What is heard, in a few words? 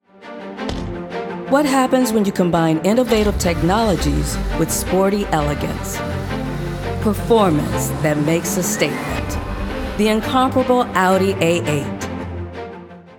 Commercial; Audi